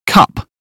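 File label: "cup", British English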